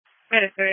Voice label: EMS Dispatch

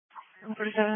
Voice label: Police Patrol 1 (Dispatch)

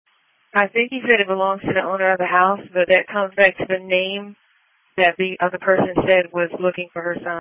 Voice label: Police Patrol 1 (Dispatch)

